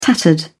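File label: "tattered", British English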